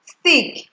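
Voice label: "stick", Nigerian Pidgin, female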